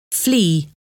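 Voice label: "flee", English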